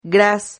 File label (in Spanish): "gras", Silabas